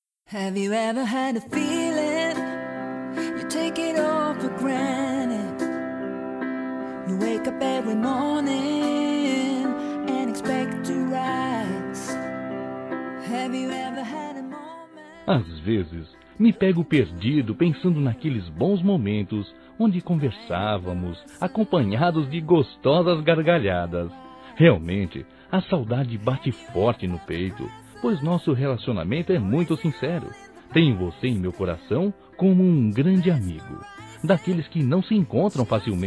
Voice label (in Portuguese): Masculino; Amigo Distante; Telemensagens 2017; Saudades